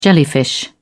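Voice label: "jellyfish", British English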